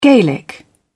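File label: "gaelic", British English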